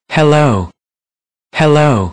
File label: Hello in Singapore; 東南亞Say Hello; Multiculturalism; Singapore新加坡; 多元文化學習專區